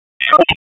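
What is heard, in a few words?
Police Northwest District Tac, radio; Raleigh; Tac 1; p25; wake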